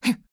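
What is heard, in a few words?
mood, Japanese; VSK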